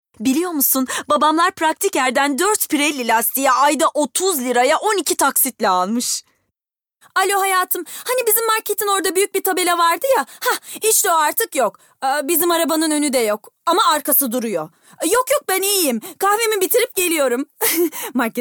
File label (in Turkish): REKLAM